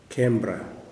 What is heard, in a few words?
"small", kuman